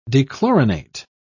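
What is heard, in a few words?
"dechlorinate", English, British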